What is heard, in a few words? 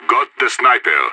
sniper down, radio; SAS